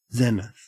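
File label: zenith, Australian English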